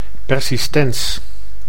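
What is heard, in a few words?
"persistens", Dutch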